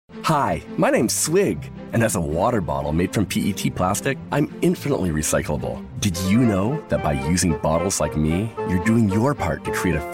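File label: Commercial Voice Over, Energetic · Fun; Commercial Demo Clips; Swig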